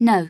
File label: "No" English; Lara; Playable Tutorial Level